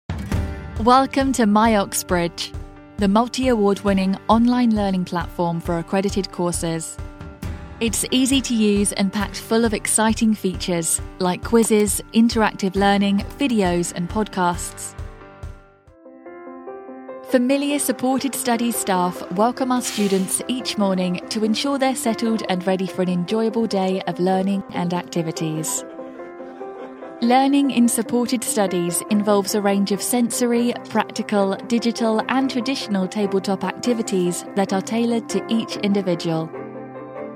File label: Education, british female voiceover